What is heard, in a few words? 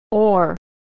"or", pronunciation; Homophones; oar or ore